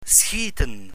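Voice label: "schieten", Dutch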